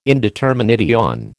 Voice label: "indetermination", American